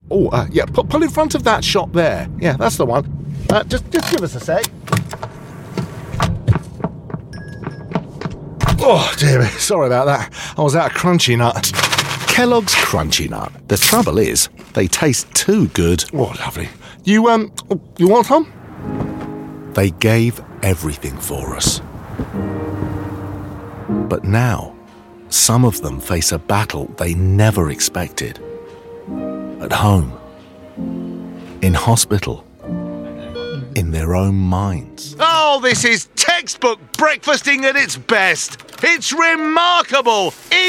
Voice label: commercials